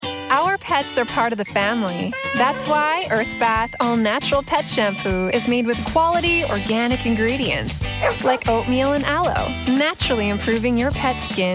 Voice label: Commercial; Earthbath